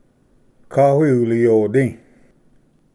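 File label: "kawi uliyodi", Cherokee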